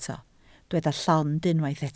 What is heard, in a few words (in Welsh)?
"llond", Welsh